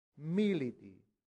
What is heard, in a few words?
"militi", latin; santillana